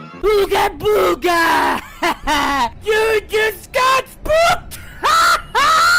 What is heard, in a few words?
ooga booga spooky; spooky month